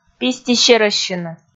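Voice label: "пистисерӧссьыны", Komi, female; audio dict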